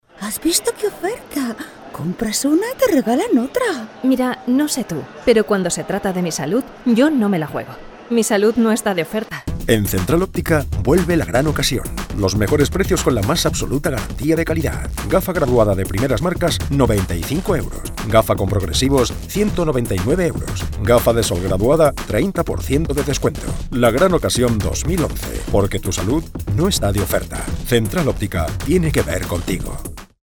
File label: locutores cuña radio spanish voiceovers; Cuña de radio; Cuña de radio"Central Óptica"